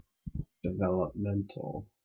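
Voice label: "developmental", English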